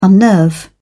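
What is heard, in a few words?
"unnerve", British English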